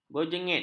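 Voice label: "berjengit", Malay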